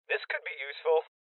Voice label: Voices Loot Collect Male Young; rebel cops 2019 gamerip